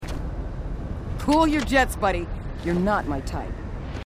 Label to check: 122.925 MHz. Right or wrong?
Wrong